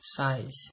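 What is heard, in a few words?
"size", Nigerian Pidgin, male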